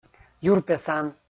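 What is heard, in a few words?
"юрпесан", Komi, male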